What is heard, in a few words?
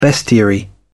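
"bestiary", British English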